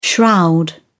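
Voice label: "shroud", British English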